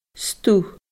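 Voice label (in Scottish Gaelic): "stuth", Scottish Gaelic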